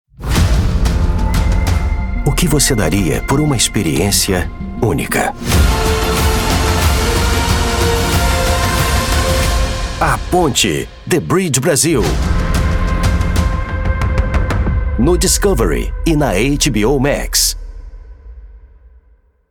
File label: portuguese brazilian promos